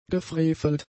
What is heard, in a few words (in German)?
"gefrevelt", german; adjectives